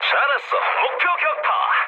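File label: Korean bomb success mood low, speech; War Thunder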